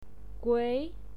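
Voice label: "gui", Mandarin Chinese, second tone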